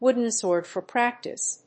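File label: "wooden sword for practice", English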